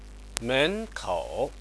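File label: "menkou", Chinese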